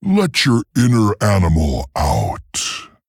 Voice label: Male Tauren